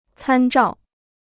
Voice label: "参照", Chinese

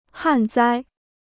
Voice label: "旱灾", Chinese

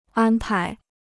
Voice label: "安排", Chinese

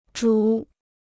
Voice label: "主", Chinese

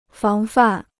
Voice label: "防范", Chinese

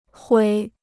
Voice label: "毁", Chinese

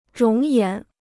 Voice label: "容颜", Chinese

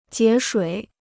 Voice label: "节水", Chinese

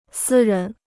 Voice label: "私人", Chinese

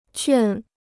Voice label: "劝", Chinese

